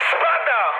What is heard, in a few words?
aircraft destroyed mood med, speech pol